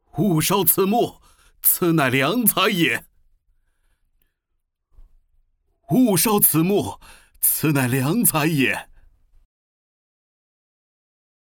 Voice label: 角色 多种语气; 蔡邕

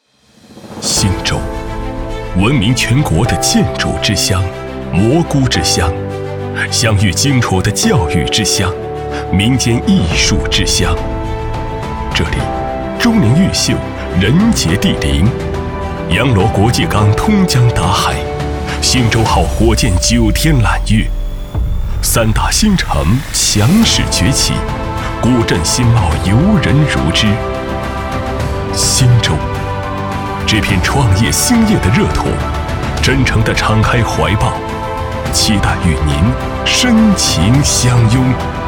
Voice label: 大气央视宣传 央视样音

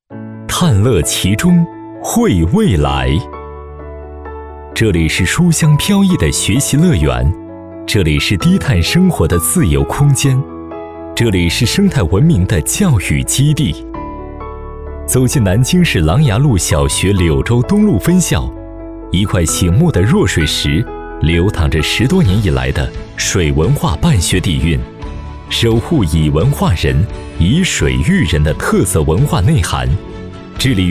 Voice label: 磁性 温暖 抒情; 琅琊路小学柳洲东路分校

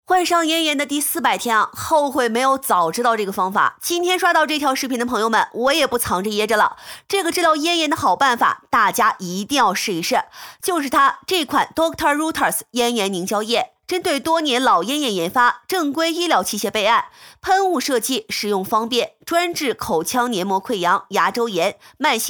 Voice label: 自媒体 医疗器械类带货中年声线

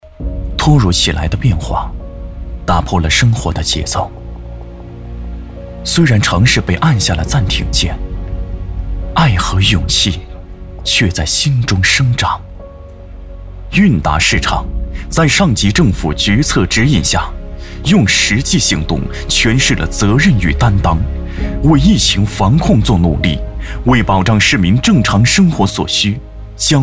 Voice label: 旁白 疫情

